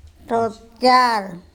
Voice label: my chest THotcar, Andamanese